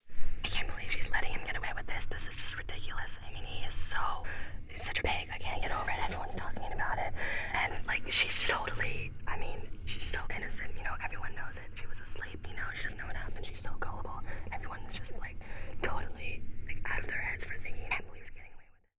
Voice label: whispers